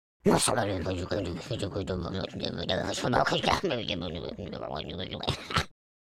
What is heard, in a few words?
angry, speech, French